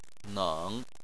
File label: "neng3", Chinese